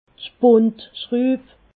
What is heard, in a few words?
"spundschruub", alsace